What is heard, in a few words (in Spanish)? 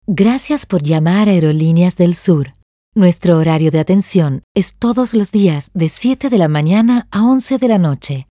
hablados, neutro